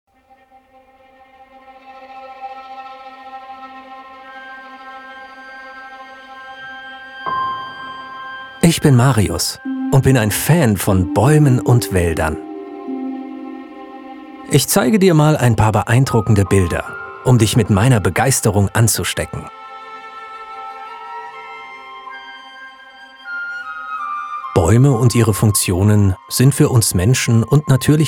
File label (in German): doku, sprecher; wald